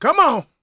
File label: PREACHIN, Gospel, PREACHER